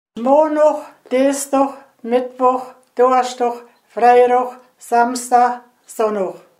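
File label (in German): wochentage, Hunsrück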